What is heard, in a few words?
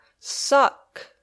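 "suck", English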